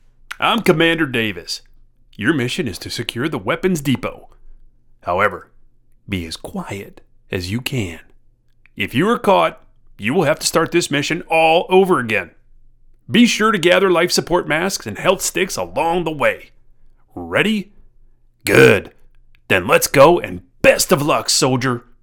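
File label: Gaming Military, Male Voice Artist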